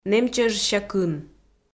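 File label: "нэмчӧжся кын", Komi, female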